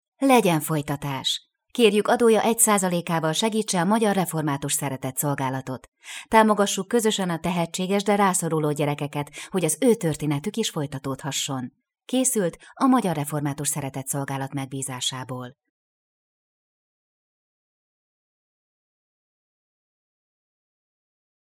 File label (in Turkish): Macarca Kadin; macarca seslendirme; kadin sesler